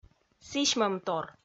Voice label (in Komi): "сісьмӧмтор", Komi